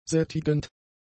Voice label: "sättigend", German; adjectives